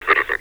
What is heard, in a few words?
"citizen", metropolice vo; Half Life Alyx